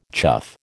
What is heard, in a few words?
"chough", American